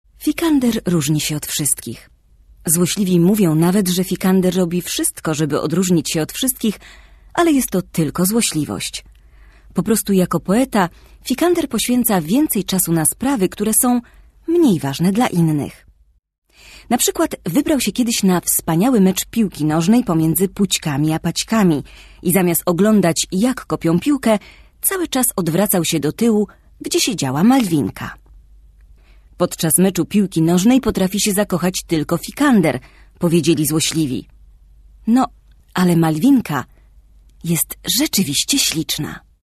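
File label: Polish Narrative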